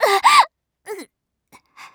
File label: die, Voice Line, JPN; Eternal Return; Tia